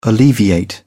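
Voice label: "alleviate", British English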